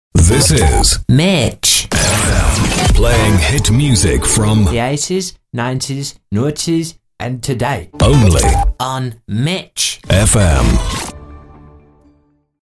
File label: sweeper; mitch fm